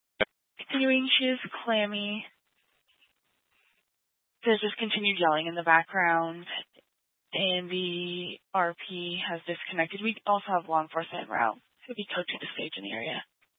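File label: Fire Dispatch, scanner audio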